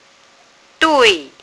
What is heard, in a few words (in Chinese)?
"dui4", phonics